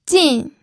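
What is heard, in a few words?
"jin", Mandarin Chinese, fourth tone; pinyin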